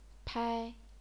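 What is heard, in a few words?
"pai", first tone, chinese pinyin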